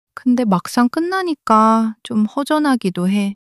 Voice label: sadness